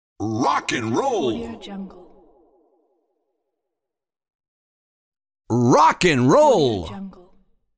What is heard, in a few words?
announcer saying rock n roll